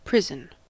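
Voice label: "prison", US English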